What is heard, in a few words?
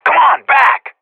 radio bot fallback; vo; agents; Source Model Packs; Counter Strike Model Pack; CS2; professional